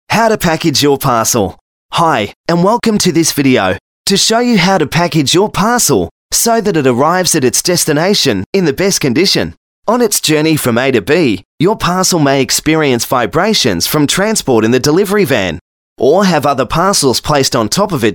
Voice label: male talent corporate